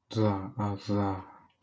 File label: Voiced alveolar non-sibilant affricate